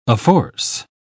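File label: "afforce", English, uk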